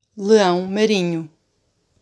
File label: Leao marinho, Sea lion; marine-mammals